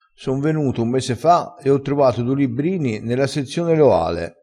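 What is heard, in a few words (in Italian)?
"libbrino", crespinese dialettale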